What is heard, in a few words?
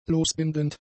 "losbindend", German; adjectives